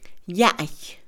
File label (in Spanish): macho, Mixe Mujer; INALI